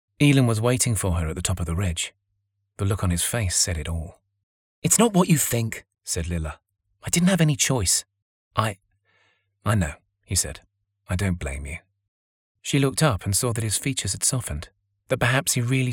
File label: audiobook